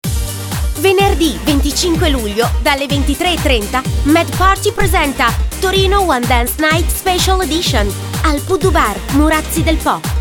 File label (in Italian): spot one dance night